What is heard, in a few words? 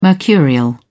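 "mercurial", British English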